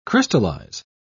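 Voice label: "crystalize", British English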